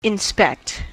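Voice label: "inspect", US English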